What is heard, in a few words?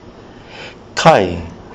"kai", kiwi english